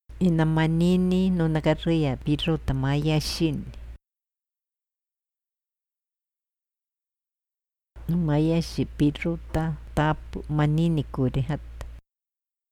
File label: iquito verbos